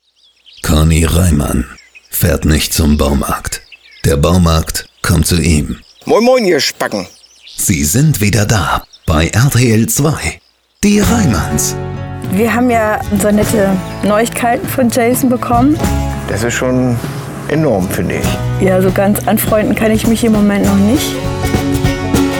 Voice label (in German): Radiospot